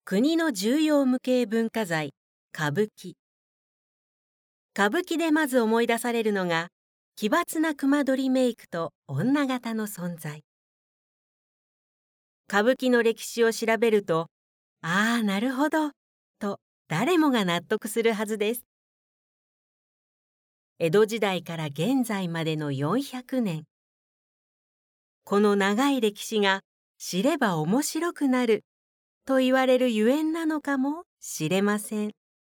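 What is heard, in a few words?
narration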